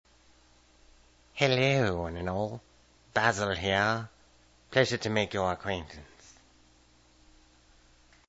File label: English, voice